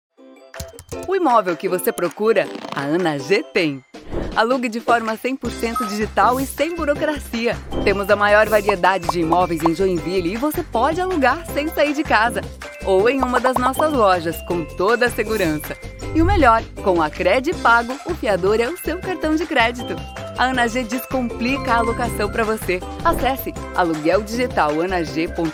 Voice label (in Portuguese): conversado animado; Varejo